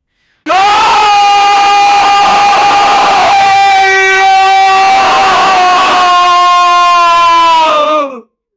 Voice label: angry man shouting loudly; furious

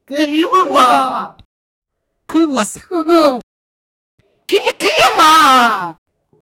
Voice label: young american woman spea, breathing

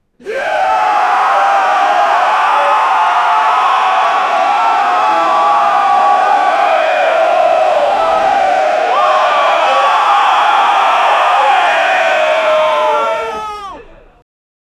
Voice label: a shouting match, argument

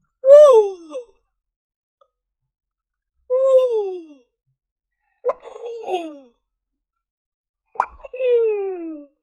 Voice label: girl voice wow

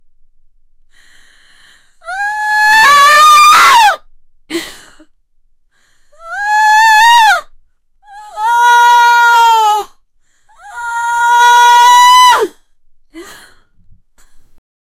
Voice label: help me scaring sound, female voice

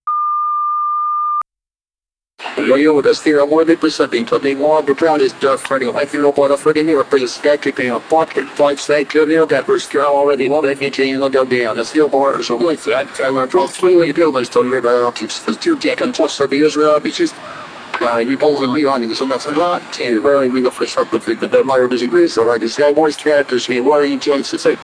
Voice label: multiple overlapping voic; documentary

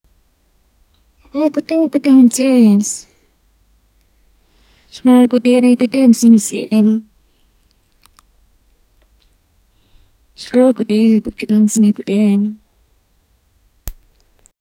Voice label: a sweet woman saying six, counting

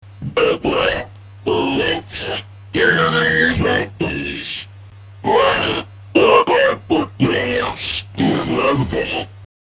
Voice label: troll talking

